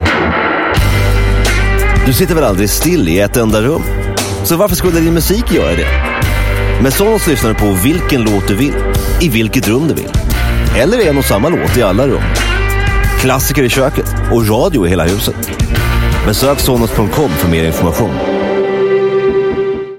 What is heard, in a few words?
Swedish, Male; Sonos MultiRoom